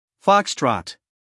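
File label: "Foxtrot", US English, male; everyone-can-use-english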